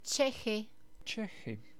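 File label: "Čechy", Czech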